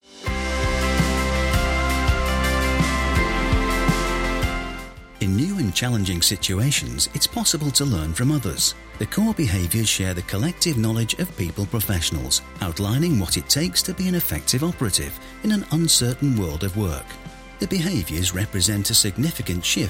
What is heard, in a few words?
British Voiceover Manchester Accent eLearning; CIPD CORE BEHAVIOURS